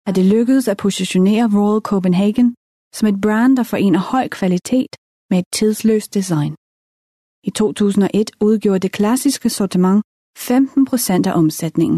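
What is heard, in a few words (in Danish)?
Danish Female Sample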